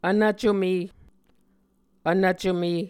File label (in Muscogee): "vnvcome", Muscogee